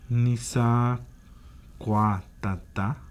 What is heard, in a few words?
Dosis, San Juan Mixtepec, Yucunani